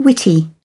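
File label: "Witty", British English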